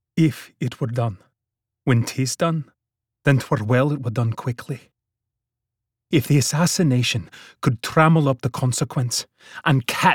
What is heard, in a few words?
Scottish Dialect